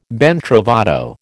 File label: "ben trovato", American